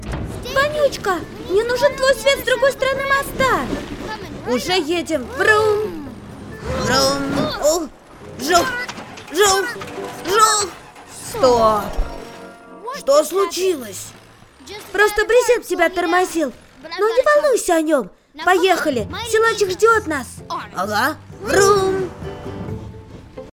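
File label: cartoon, Russian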